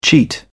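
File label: "cheat", British English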